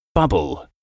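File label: "bubble", English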